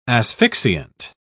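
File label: "asphyxiant", English, British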